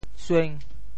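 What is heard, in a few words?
"sueng5", Teochew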